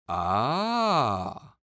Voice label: Human Male Ahh Good Idea Ahh